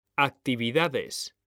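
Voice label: "actividades", Spanish, male; mekanta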